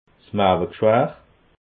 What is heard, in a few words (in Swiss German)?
"maawegschwar", alsace